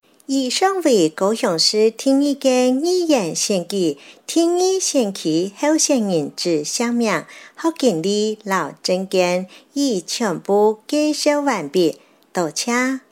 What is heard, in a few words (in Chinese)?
客語; 第二選區